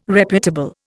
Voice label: "reputable", Indian

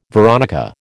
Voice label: "veronica", American

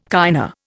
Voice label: "kinda", Indian

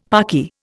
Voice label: "parky", Indian; Dictionary

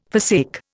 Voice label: "forsake", Indian

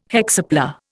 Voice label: "hexapla", Indian

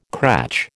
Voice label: "cratch", American

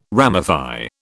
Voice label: "ramify", British

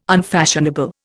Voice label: "unfashionable", Indian

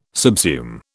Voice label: "subsume", British

